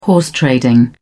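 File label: "horse trading", British English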